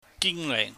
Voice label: "gingleih", Cantonese